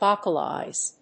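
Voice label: "vocalise", English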